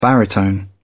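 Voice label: "baritone", British English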